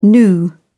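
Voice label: "gnu", British English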